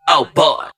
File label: "oh boy", male; ooh ahh